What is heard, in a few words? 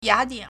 "ya3dian3", Chinese